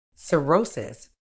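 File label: "cirrhosis", British English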